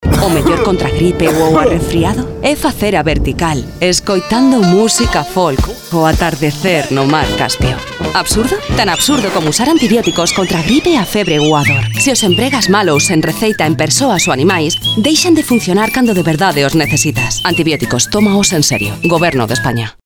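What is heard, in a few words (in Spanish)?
campana absurdo cuna galician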